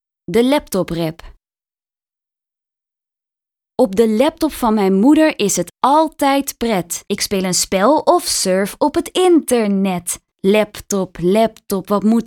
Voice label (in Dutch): De laptop rap; taalsprong; communicatie